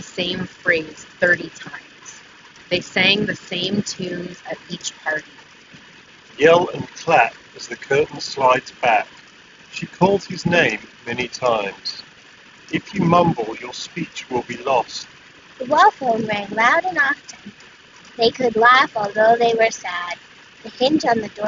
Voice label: Sennheiser Momentum 4 Wireless Wind microphone sample